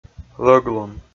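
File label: "лӧглун", Komi, male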